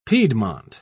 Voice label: piedmont, uk